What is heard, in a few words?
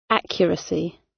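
"accuracy", British; Language, linguistics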